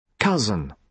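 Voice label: "cousin", British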